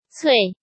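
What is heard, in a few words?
"Cuì", Chinese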